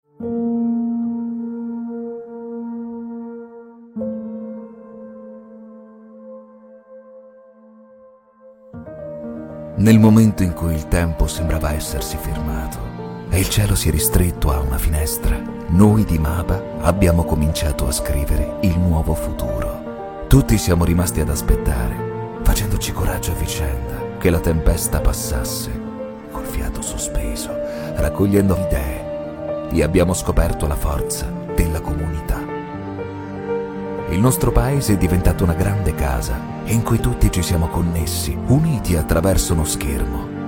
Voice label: Emotional Warm Influential voiceover